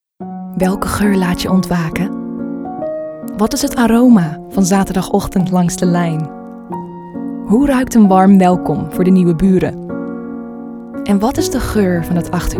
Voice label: Dutch